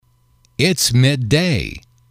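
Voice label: its midday, English, male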